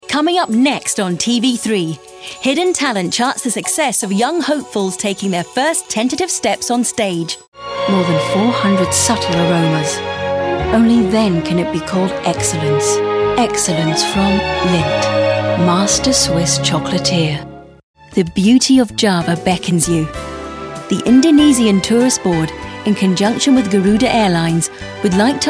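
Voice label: British English, TV commercial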